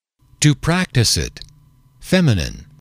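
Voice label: "to practice it", English, male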